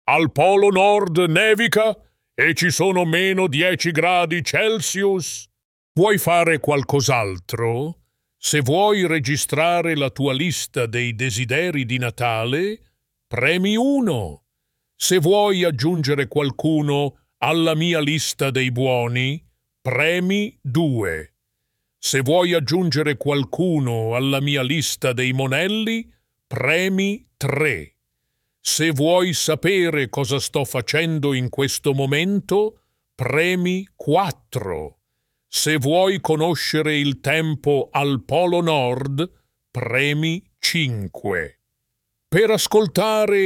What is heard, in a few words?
voicemail, italian